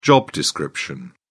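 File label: "job description", British English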